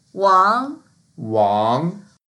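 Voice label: "wang2", Mandarin Chinese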